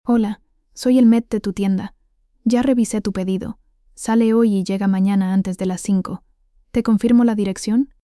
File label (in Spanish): joven narradora